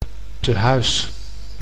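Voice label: "tehuis", Dutch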